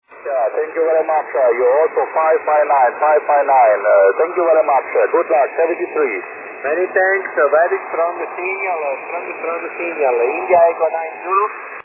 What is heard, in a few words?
20m ssb